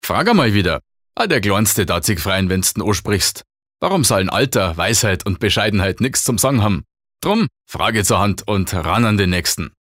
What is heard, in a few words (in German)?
Dialekt Bayerisch